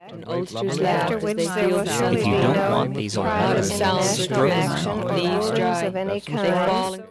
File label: speech In Noise